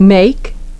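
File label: "make", English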